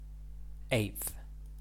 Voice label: "eighth", English

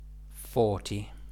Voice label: "forty", English

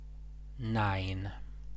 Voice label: "nine", English

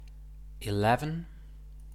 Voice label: "eleven", English

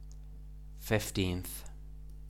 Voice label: "fifteenth", English

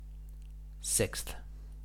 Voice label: "sixth", English

